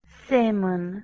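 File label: "seh1mn", Proto-Indo-European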